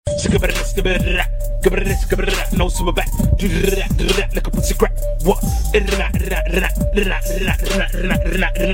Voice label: man babbling; audio meme

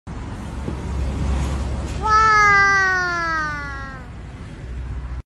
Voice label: girl says wow; audio meme

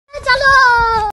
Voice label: small boy chalo; audio meme

